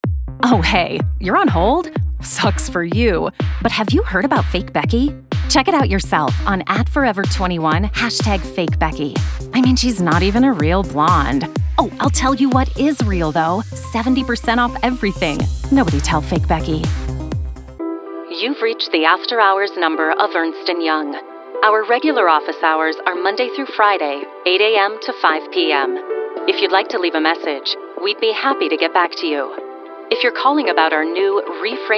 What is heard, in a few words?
Telephony